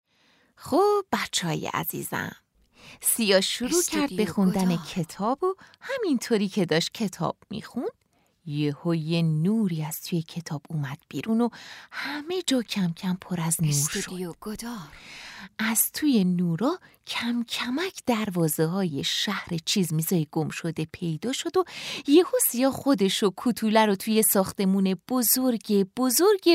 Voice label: narration